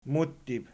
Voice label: "muttib", Archi